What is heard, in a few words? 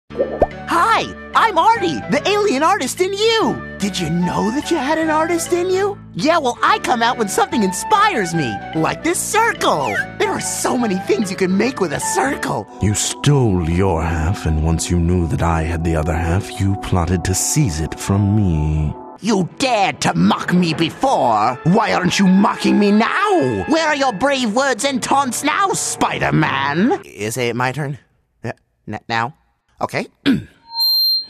Animation VO